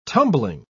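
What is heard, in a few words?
"tumbling", British English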